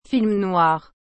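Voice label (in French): "film noir", French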